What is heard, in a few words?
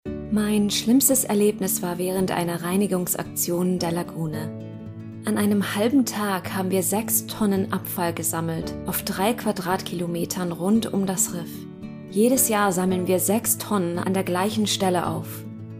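German, female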